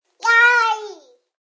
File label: Toddler says "Yai!", Toddler ecstatically screams; Talking